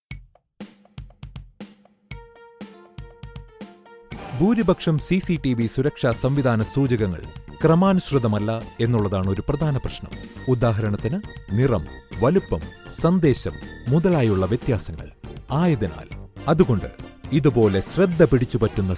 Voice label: Malayalam